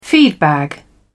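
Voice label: "feedbag", British English, dictionary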